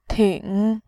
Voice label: "ting3", voice

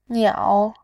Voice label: "niao3", voice

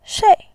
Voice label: "shei4", voice

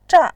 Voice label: "zha4", voice

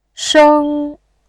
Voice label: "sheng1", voice

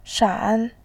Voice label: "shan3", voice

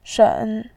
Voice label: "shen3", voice

